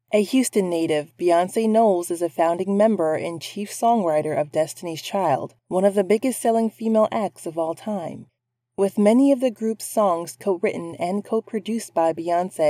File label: narration; Voice over